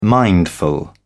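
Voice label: "mindful", British English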